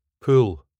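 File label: pool, British English pronunciation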